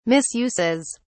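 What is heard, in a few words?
"misuses", British English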